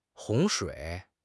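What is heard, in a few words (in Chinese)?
"洪水", Chinese; HSK6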